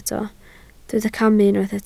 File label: "camu", Welsh